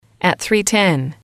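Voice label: "three ten", English, female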